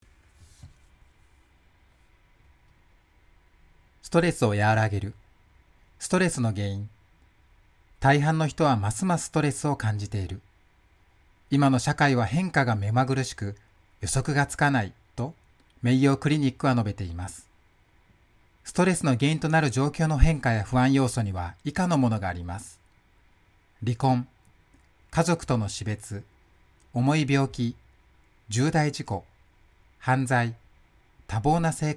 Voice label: 日语男 外语 160每分

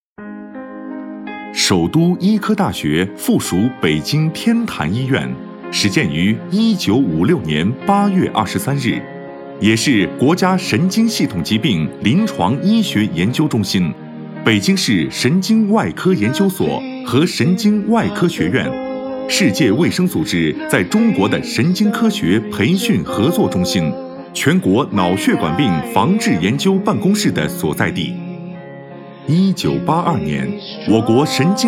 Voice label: 男国 专题 医院 沉稳; 天坛医院

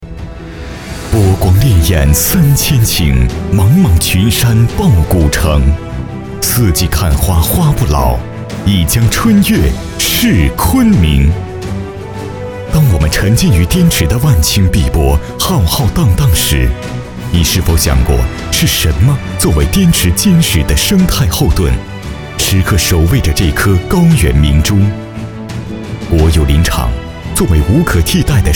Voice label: 专题 汇报 林场 大气, 男; 昆明市西山林场

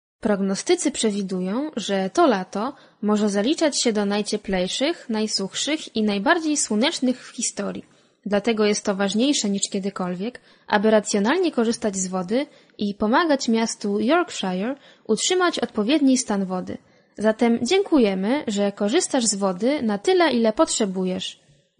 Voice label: 波兰语女, 外语, 小语种, 自然

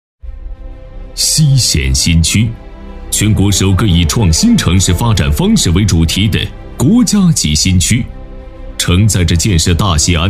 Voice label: 专题 政府 形象片, 男国; 陕西省西咸新区形象片

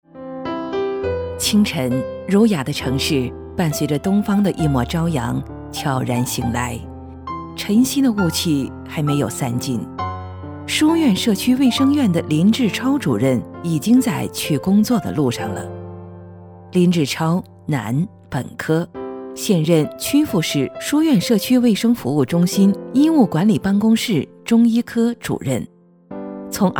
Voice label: 女 专题 人物 医生; 林医生

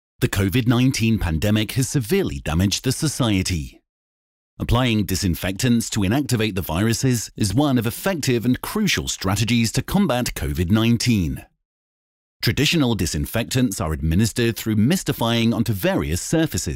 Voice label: 外籍男英 外语 英式英语 新样 磁性

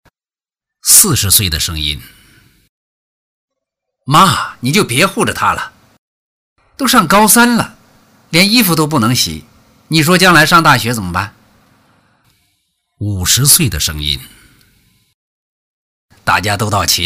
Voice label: 动画 角色 各年龄段中老年男声, 男国

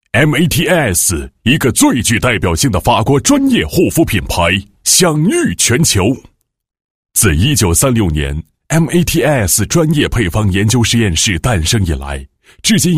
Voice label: 浑厚; 产品; MATIS公司产品发布

